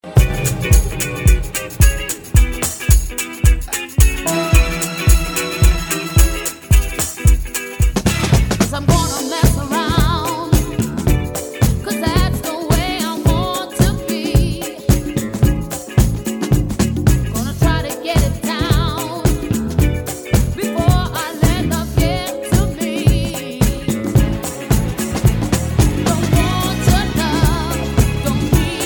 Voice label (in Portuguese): radio circuito interno; cea